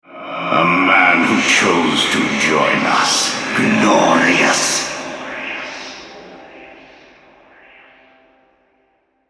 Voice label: altar capture, male, en US; Karthus